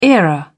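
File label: "era", British English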